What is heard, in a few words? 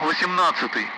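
Speech, Russian, 8th; Allied Flight; DCS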